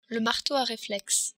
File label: "marteau", French